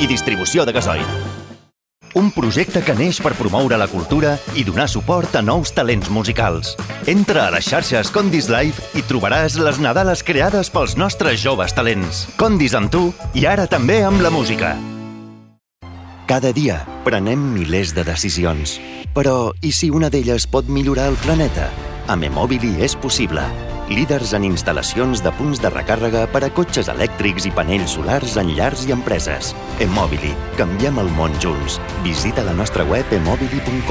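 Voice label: Spanish catalan radio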